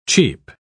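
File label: "cheep", English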